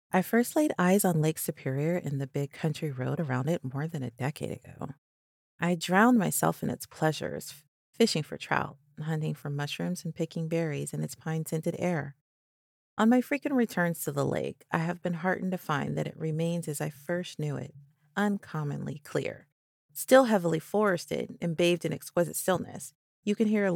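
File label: English American, female, voice over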